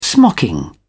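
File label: "smocking", British English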